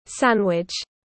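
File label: "sandwich", English, British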